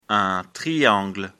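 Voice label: "triangle", French; afrikalan; lecture fr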